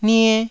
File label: "nie1", Taiwanese syllable